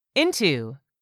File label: "into", English; 3000 words